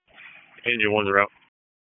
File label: PS TAC 18, P25 recordings; wake